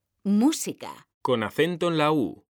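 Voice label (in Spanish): "música" Spanish, female; mekanta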